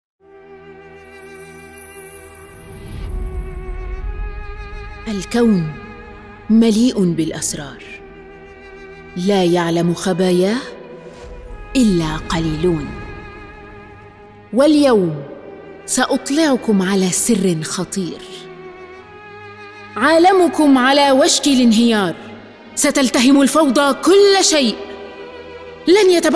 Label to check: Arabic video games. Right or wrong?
Right